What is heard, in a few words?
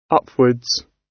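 "upwards", British English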